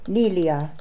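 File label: "Nilia", pronunciations; Fourth Way; Beelzebub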